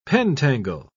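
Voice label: "pentangle", English, British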